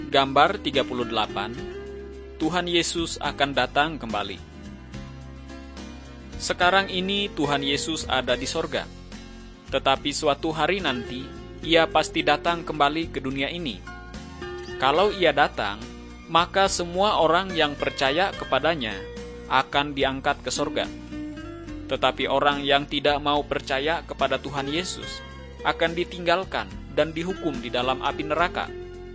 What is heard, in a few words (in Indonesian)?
kabar baik good news bahasa indonesia